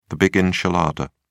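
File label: "big enchilada", English, British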